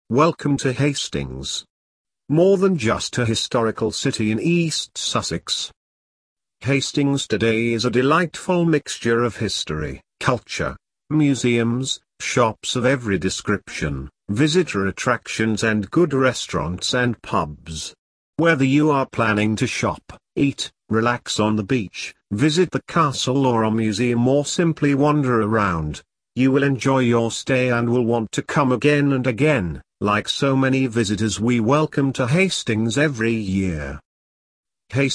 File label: english male voice